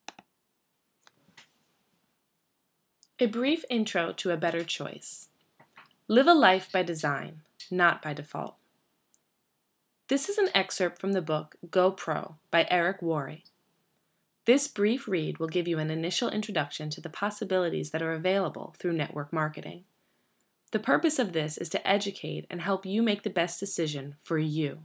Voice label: voiceover test run